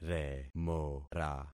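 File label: "remora", concatenated syllables; Affixing experiment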